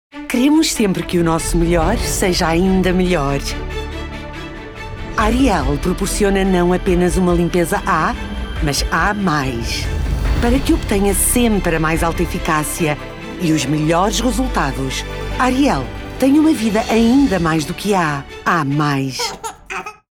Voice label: Commercial, voice demos; Ariel